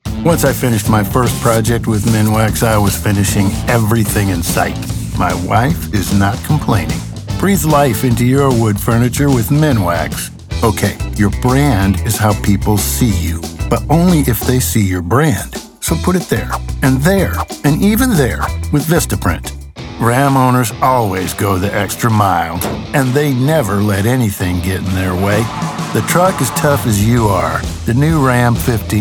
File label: Commercial